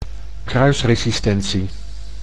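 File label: "kruisresistentie", Dutch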